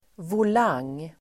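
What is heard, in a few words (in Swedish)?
"volang", Swedish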